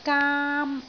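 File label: "gaam1", Cantonese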